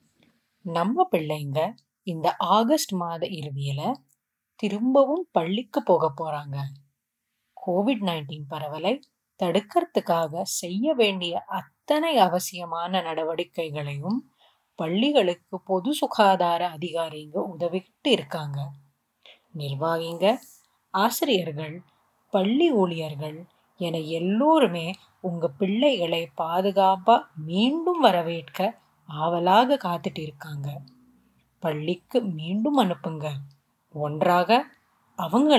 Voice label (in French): Message TAMOUL